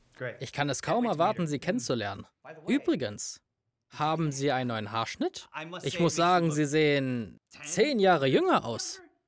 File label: VoiceOver